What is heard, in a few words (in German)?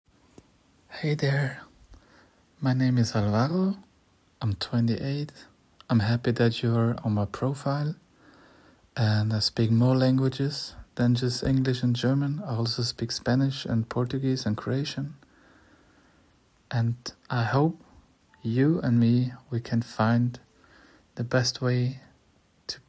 Escort Mann Stimmenprobe Englisch